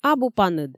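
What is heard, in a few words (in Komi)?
абу паныд, Komi, female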